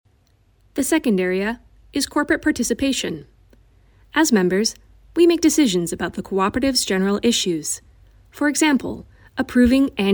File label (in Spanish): locutora inglesa canada english canadian voiceover